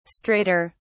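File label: "straighter", British English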